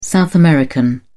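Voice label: "south american", English, British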